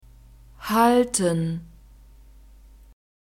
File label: "halten", German; verbs present tense irregular